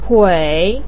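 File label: "tui3" Chinese syllable, pinyin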